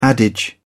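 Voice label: "adage", English, British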